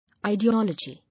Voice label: "Ideology", English